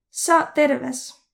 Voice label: get well soon, Võro; Saaq terves

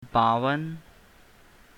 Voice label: 52, hindi

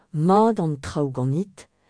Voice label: how are you, breton; Mat an traoù ganit?

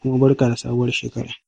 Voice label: new year, hausa

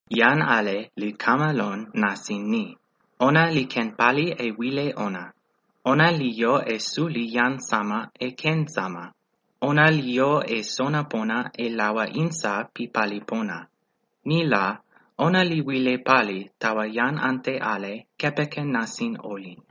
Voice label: udhr toki pona

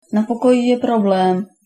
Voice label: room problem, czech

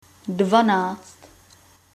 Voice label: twelve, Czech

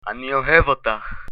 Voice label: i love you, hebrew

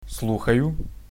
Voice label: hello, ukrainian; Слухаю; telephone

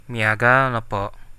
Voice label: you're welcome, Central Dusun

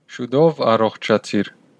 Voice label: get well soon, armenian western; Շուտով առողջացի՛ր

